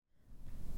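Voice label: "salmon-spear-point", Takelma word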